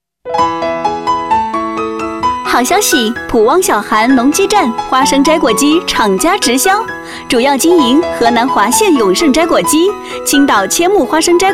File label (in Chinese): 女 广告 甜美 配乐, 国语女声配音; 小韩农机站